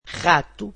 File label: "mouse", Portuguese